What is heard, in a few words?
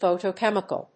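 "photochemical", English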